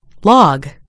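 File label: "log", British English; gcompris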